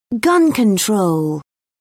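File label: "gun control", British English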